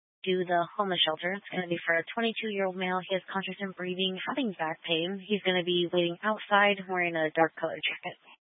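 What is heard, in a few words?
Fire Dispatch, Scanner audio recording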